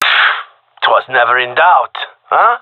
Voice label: round won, gendarmerie male; vo